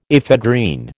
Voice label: "ephedrine", American